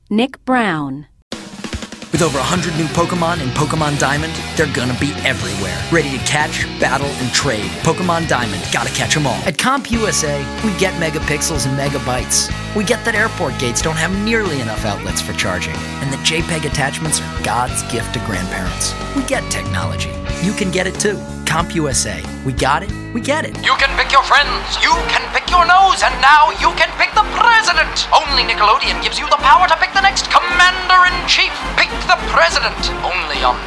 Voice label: commercial, men

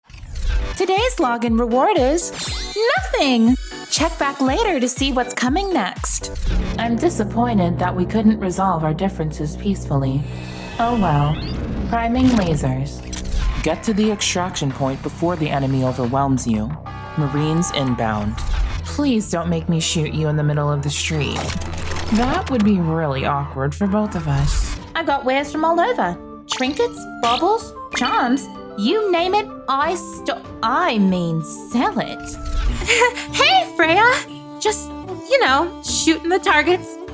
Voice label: animation men

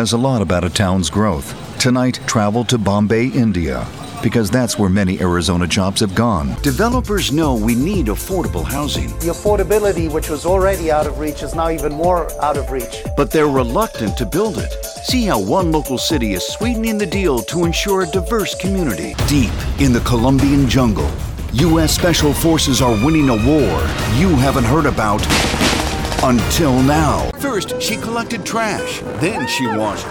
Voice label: tv affiliate men news

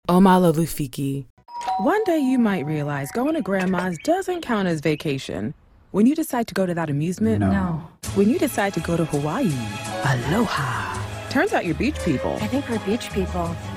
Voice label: commercial women

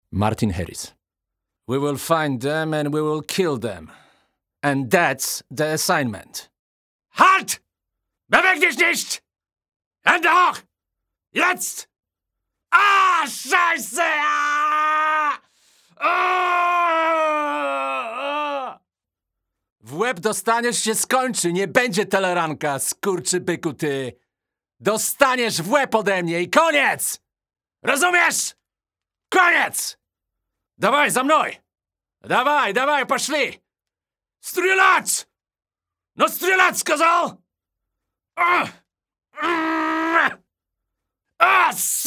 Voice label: animation men interactive

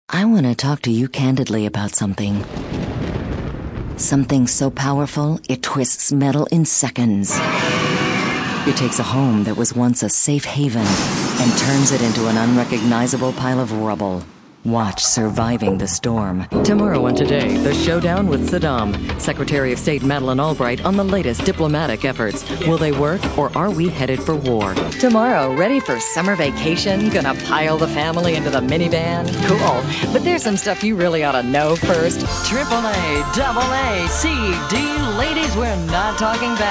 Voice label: tv affiliate female news

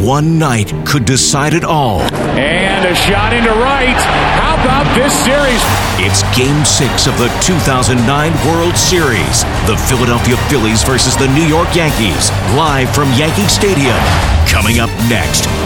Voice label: promo awards and events, men

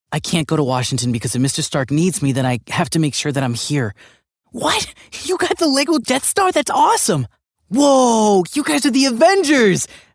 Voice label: animation men impressions, voices men

